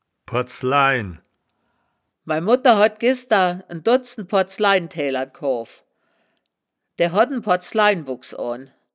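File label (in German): "pordslain", Wittlicher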